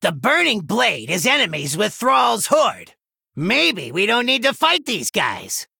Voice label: Male Gnome; Scabbs; Kurtrus

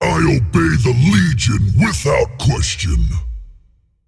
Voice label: Male Demon Bark; Rathorian

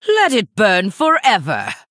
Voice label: Female Human Emote Trigger Perpetual Flame

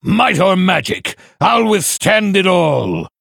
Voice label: Male Blood Elf Play

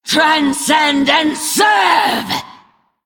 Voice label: Female Lich Attack

